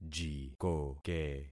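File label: "jikoke", concatenated syllables; Affixing experiment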